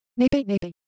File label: Japanese Motion, Ideo Phones